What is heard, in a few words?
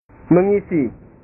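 "mengisi", Indonesian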